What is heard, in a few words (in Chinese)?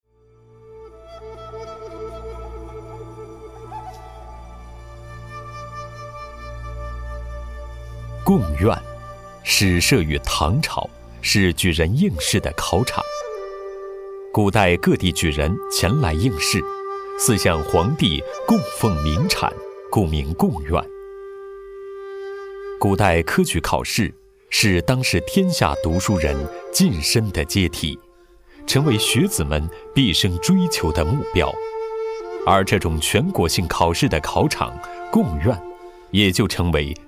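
男国大音-历史纪录片-音乐-样音; 贡院; 纪录片配音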